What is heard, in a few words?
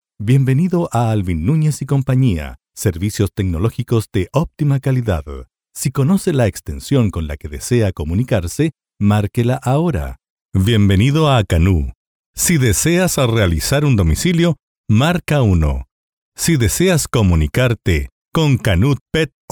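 Spanish Americas, male, voice over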